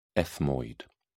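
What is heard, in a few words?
"ethmoid", British English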